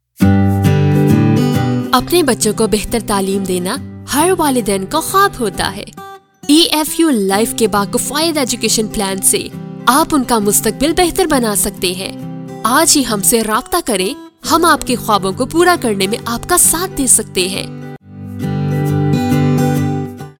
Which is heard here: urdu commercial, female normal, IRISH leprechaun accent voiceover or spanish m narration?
urdu commercial